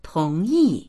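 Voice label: "tong2yi4", Chinese; tóngyì; 同意; 同意する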